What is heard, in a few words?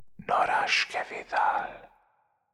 incantations whispers masc forward standard; psfx